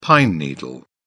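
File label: "pine needle", British English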